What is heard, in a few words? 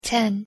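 "ten", English pronunciation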